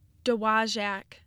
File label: "Dowagiac", "doe-wah-jak", Michigan pronunciation